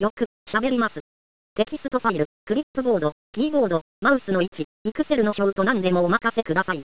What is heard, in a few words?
boy